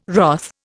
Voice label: "wrath", Indian